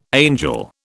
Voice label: "angel", British

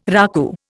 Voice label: "raku", Indian

